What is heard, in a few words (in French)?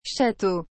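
"château", French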